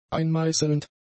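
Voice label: "einmeißelnd", German; adjectives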